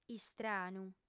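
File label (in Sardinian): "istranu", Sardinian, female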